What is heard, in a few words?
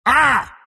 pain; bristleback